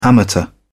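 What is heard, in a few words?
"amateur", English, British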